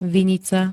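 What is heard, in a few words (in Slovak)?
"vinica", Slovak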